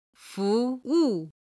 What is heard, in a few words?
"fuwu", Chinese